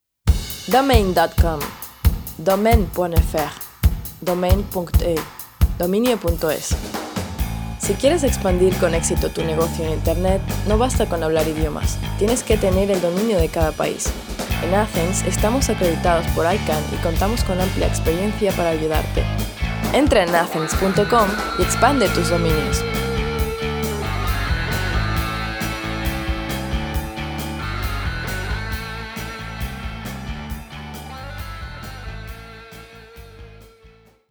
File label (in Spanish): anuncio radio; dominios acens